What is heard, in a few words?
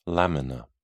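"lamina", British English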